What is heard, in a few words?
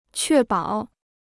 "确保", Chinese